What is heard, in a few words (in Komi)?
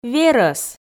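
"верӧс", Komi, female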